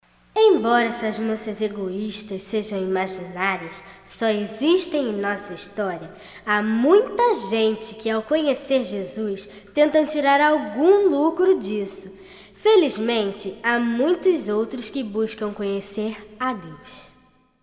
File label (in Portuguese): Narração